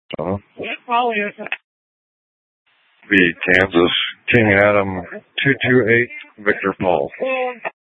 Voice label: Sheriff, scanner P25